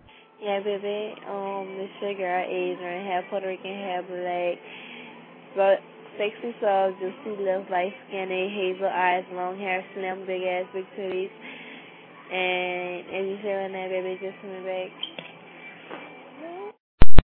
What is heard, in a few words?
weird voicemail